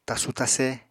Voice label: "tasu-tase", apatani

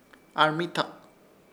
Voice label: "ARMITAK", Ishir Chamacoco; pez

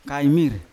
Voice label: "kaimir", Yawa; rat

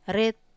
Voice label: "ret", Wamesa

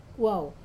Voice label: "kuau", bucket, Wamesa

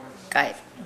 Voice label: "eat transitive", Nukuoro

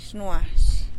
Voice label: "xnuax" loud, teotitlan

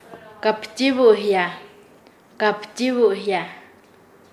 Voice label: Nasa Yuwe; hacer derretir; paez

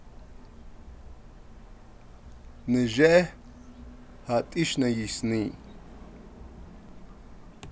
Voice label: What did your father buy, Navajo

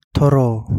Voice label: Kapingamarangi; gray duck

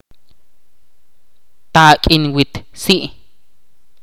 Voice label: "hacha" in Ch'orti'

